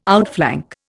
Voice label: "outflank", Indian